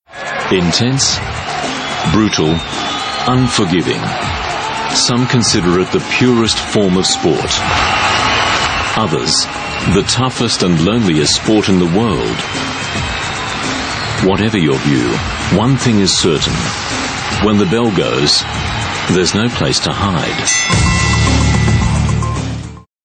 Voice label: Australian Mid Atlantic English Docu, English Australian Male; White Collar Boxer